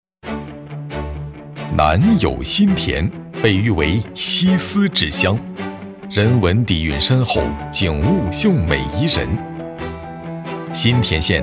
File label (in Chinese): 专题片 汇报类 积极 自信 大气 浑厚